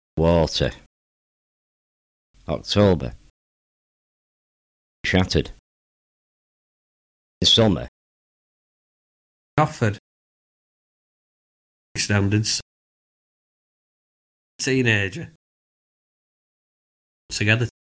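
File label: After the letTER vowel (morpheme final weak syllables), Marshside, nonrhotic; wate(r) Octobe(r) shatte(r)ed su; rhoticity; accents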